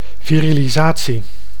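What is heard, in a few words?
"virilisatie", Dutch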